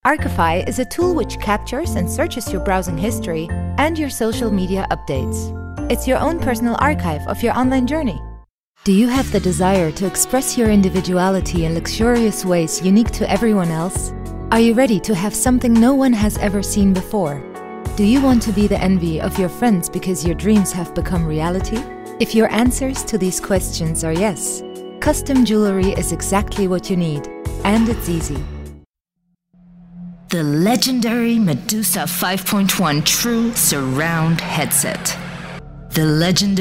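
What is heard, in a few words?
English Commercial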